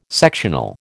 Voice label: "sectional", American